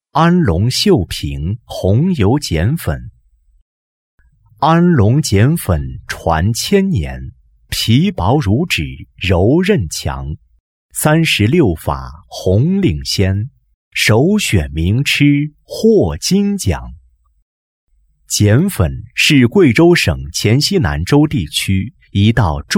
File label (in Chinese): 男, 纪录片, 红油剪粉